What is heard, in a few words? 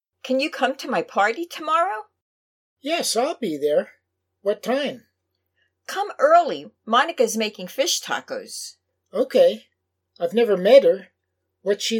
Natural Dialog